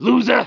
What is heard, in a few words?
"looser" male, pedestrian; cs2d; cityrp